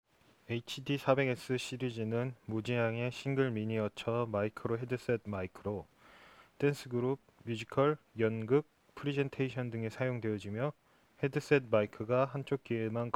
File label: male, wireless microphone; countryman e6; votex; hd400; korea